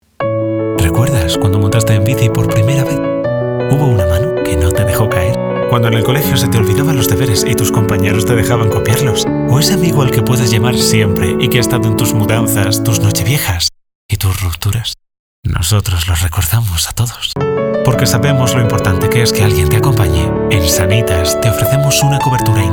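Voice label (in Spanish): Cercano, locución; Sanitas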